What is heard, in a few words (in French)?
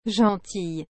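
"gentille", French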